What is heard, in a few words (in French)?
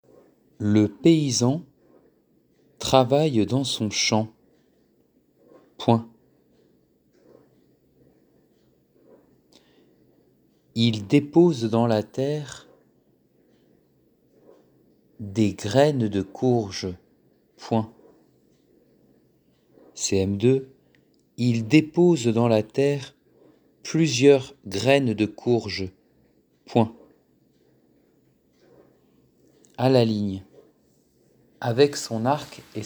Dictee flash phrases